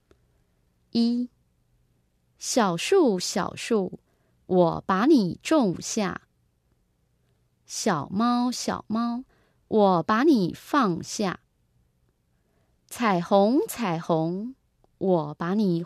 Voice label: dictation